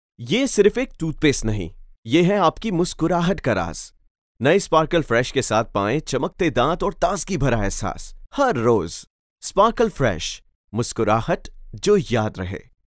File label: Urdu - Commercial - TV-Radio-Online Ads